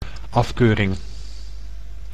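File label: "afkeuring", Dutch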